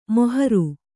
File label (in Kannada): "ಮೊಹರು", Kannada